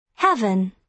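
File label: "heaven", British English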